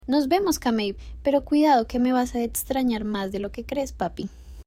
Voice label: despedida coqueta

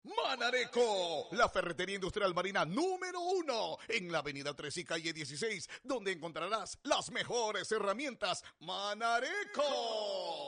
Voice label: publicidad; partidos

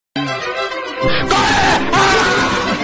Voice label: corre aaaaaaaaaaaaaaaaaah

